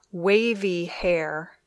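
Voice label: "wavy hair", English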